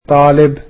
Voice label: "student", egyptian